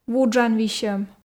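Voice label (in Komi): "вуджан висьӧм", Komi, female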